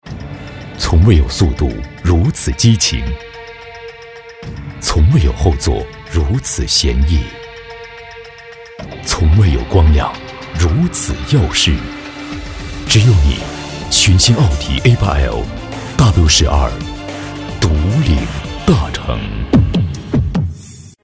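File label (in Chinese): 男 广告 汽车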